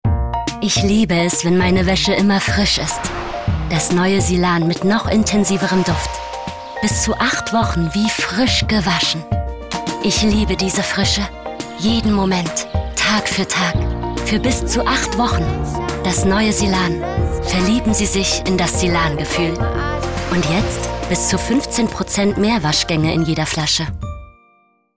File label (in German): Fremdtake Sanft